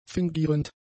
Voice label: "fingierend", German; adjectives